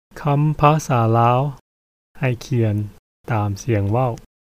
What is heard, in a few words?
Lao Speech; Empires of the Word; a Language History of the World; by Nicholas Ostler, 2005